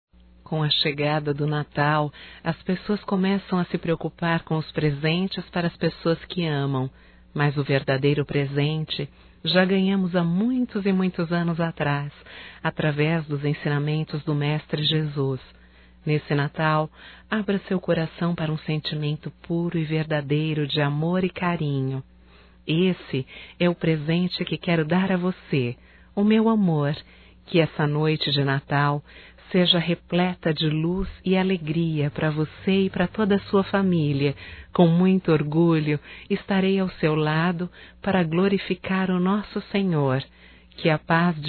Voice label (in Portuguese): Romântico Feminino; Telemensagens 2017; Natal & Ano Novo